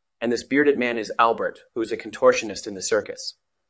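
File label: VoiceActing es M2, voice acting, Spanish, male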